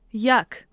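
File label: "yak" Tajik female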